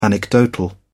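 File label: "anecdotal", British English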